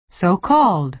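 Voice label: "so-called", American English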